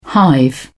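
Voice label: "hive", British English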